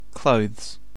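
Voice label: "clothes", British English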